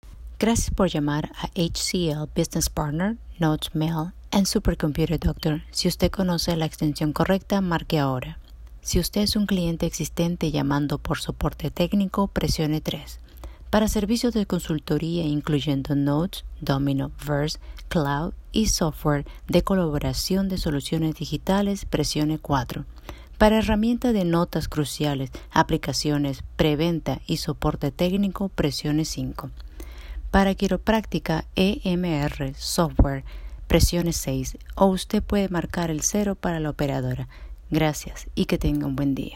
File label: Main Menu Spanish; NotesMail